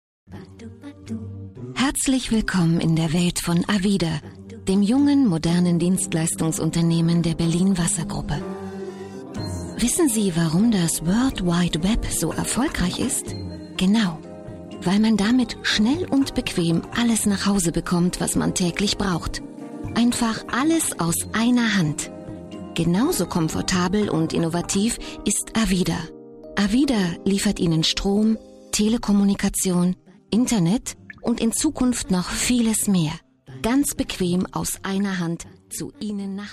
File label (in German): kommentar